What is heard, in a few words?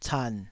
"can3", taiwanese